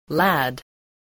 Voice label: "lad", English